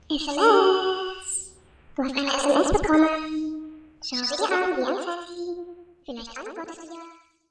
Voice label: German

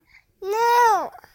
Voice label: Cute no

